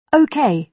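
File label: "ok", British English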